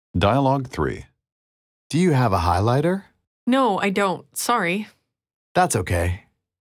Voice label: Dialogue natural